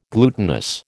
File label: "glutinous", American